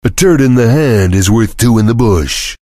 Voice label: chitchat; svencoop; voice commands; Duke

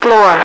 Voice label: floor, radio female

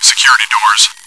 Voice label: chitchat; svencoop

